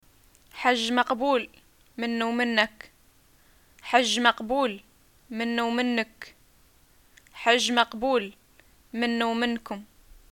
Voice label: greeting hajj, arabic

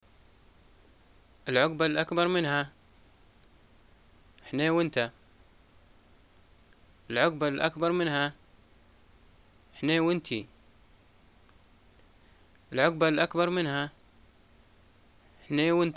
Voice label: greeting graduation, arabic, ly

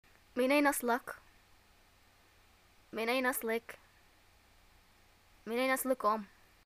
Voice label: where r u from, arabic, ye

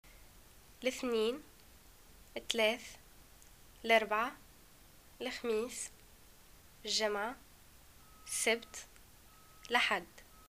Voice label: days, arabic, tn

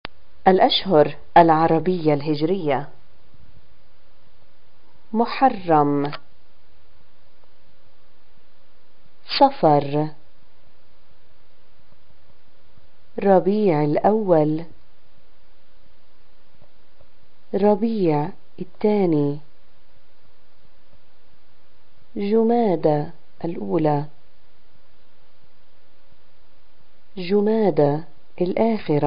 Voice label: months islamic, arabic

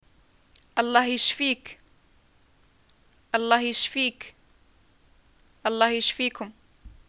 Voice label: greeting get well soon, arabic

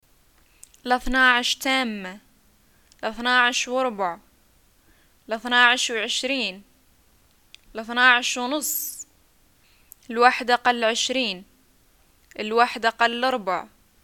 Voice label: time, Arabic

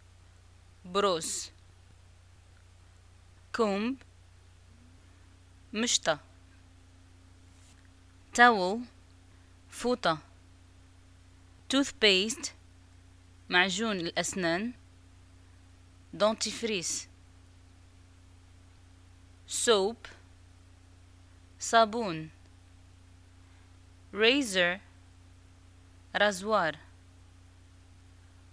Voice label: toiletries, Arabic, North African, Moroccan

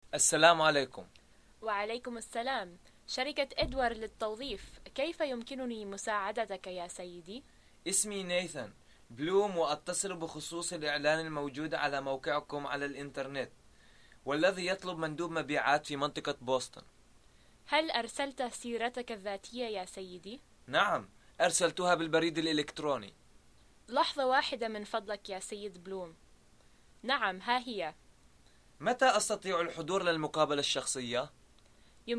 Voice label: msa telephone, arabic dialogues

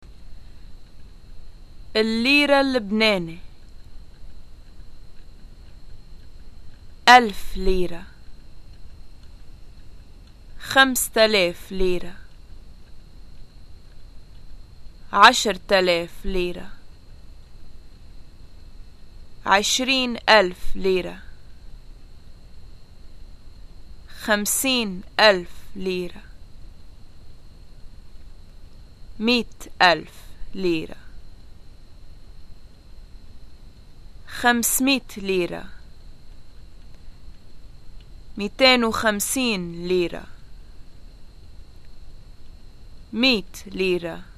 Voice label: lebanese currency, Lebanese arabic

